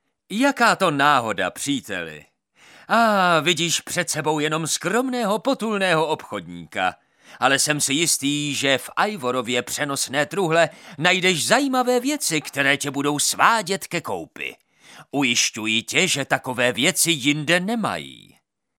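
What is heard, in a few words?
Male Czech drama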